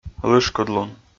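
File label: "лышкыдлун" Komi, male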